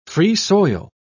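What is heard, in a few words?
"free-soil", British English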